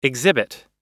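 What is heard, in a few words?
"exhibit", English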